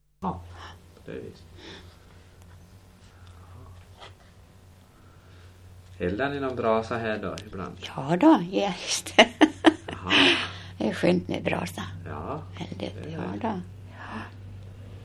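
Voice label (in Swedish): Falun Dalarna, dialekter; Folkminnen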